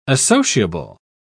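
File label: "associable", British English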